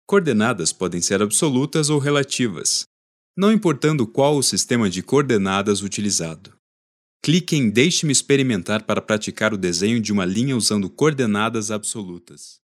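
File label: Brazilian Portuguese, male, e-learning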